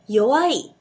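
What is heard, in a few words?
"yowai", Japanese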